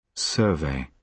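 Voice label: "survey", British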